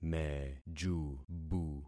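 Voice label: "mejubu", concatenated syllables; Affixing experiment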